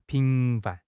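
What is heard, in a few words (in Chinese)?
"频繁", Chinese; HSK; HSK6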